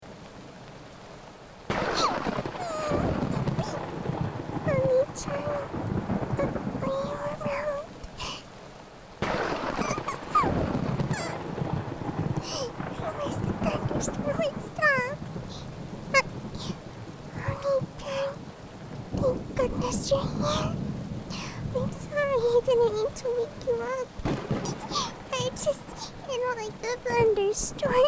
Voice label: ASMR Comforting your little sis during a thunder storm